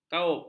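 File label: "kaup", Malay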